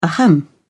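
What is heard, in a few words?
"ahem", British English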